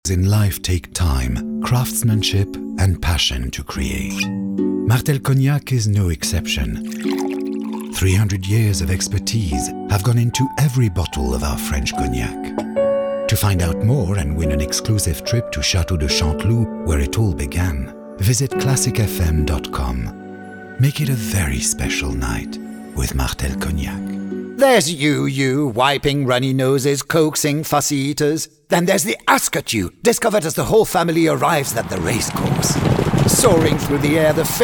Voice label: voiceover english language showreel commercial

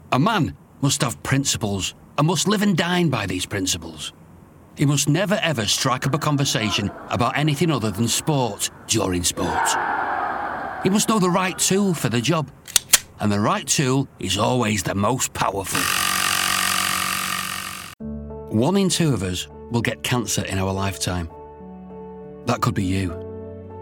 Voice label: voiceover commercial showreel

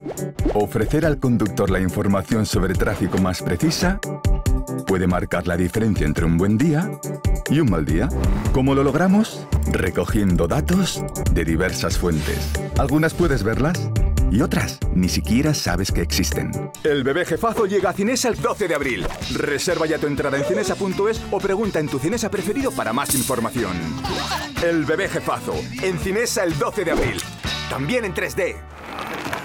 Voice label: spanish commercial voice

